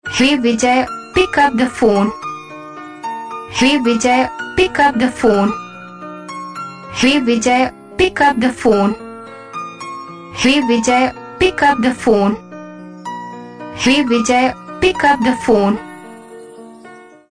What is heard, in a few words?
Pick Up The Phone, Ringtones